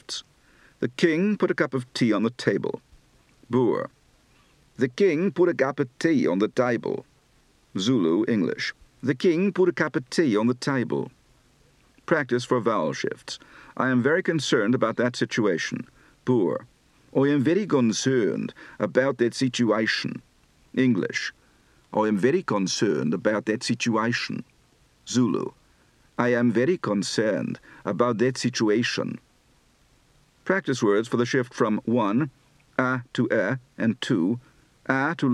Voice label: South African, Accent

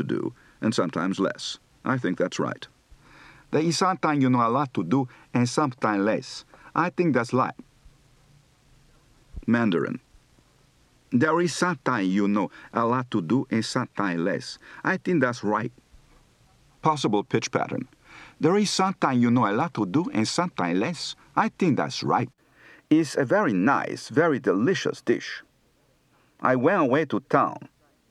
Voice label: Chinese, Accent

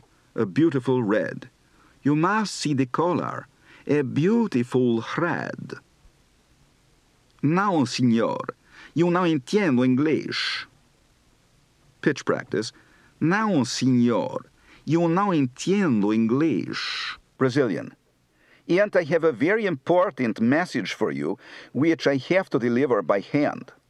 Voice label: Portuguese, Accent

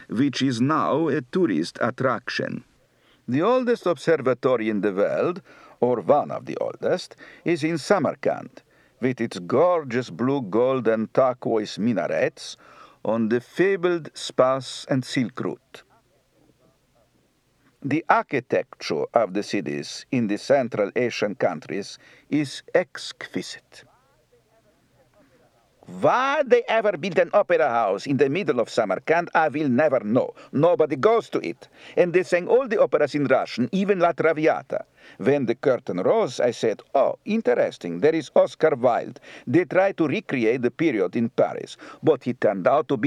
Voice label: Uzbek, Accent

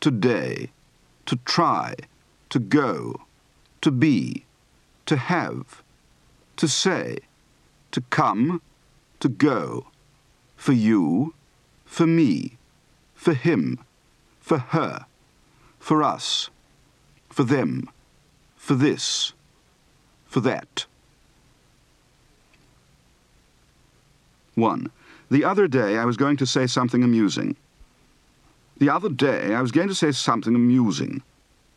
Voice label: British RP, Accent

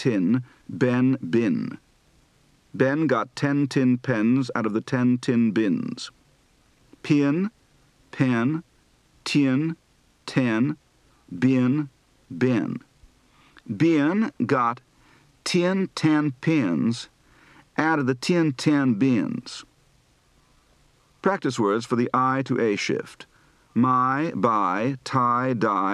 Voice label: Southern U.S., Accent

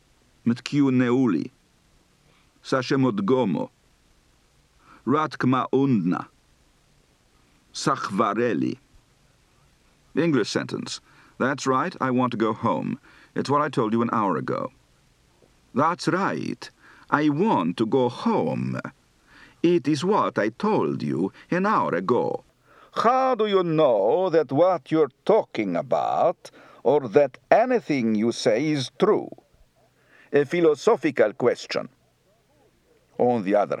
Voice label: Georgian, Accent

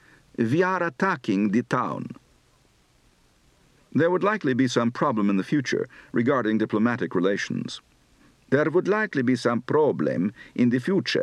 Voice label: Persian, Accent

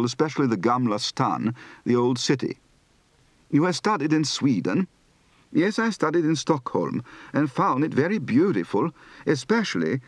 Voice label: Swedish, Accent

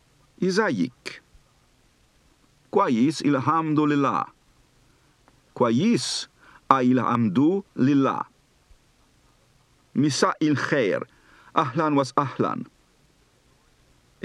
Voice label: Arabic, Accent

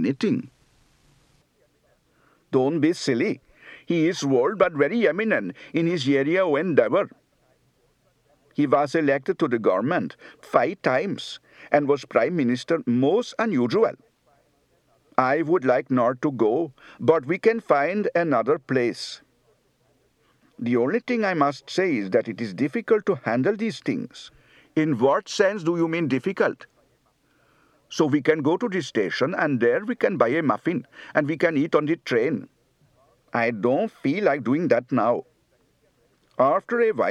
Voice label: India And Sri Lanka, Accent

